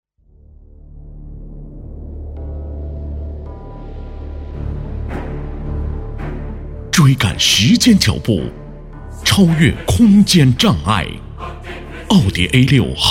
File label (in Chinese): 男 广告, 国语男声配音; a6; 细节版